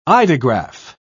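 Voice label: "eidograph", British English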